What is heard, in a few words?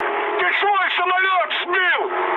ru player killed ally mood med; War Thunder